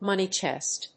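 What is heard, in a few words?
"money chest", English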